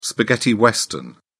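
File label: "spaghetti western", English, British English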